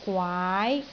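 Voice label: "gwaai2", Cantonese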